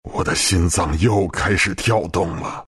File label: respawn, zh; Dota 2